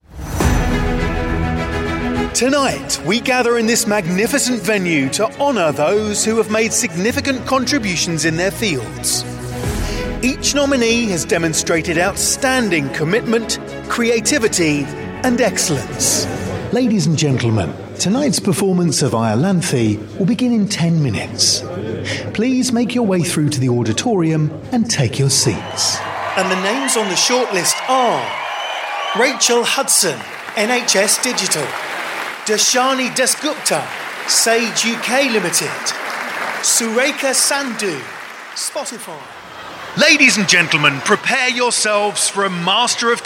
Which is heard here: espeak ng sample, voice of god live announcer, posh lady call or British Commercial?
voice of god live announcer